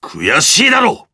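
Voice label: victory, Japanese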